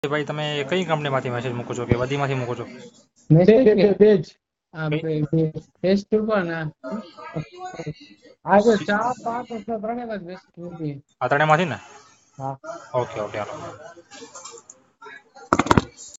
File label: Call recording